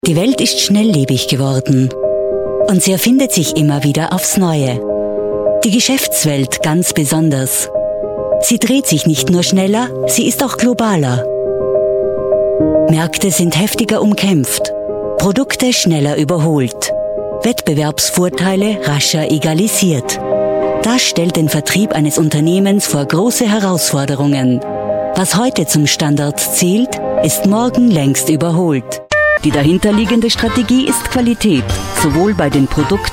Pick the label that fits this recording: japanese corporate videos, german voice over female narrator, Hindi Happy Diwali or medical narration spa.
german voice over female narrator